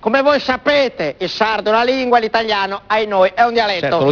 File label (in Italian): dialetto